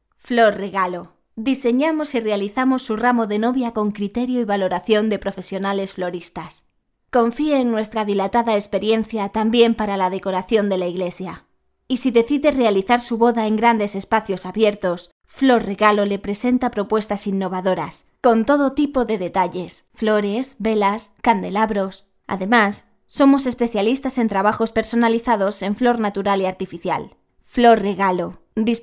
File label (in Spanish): female